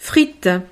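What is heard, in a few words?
"frite", French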